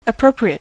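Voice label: "appropriate" adj, US English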